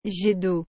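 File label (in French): "jet d'eau", French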